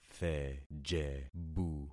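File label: "thejebu", concatenated syllables; Affixing experiment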